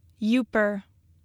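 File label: "Yooper", Michigan Pronunciations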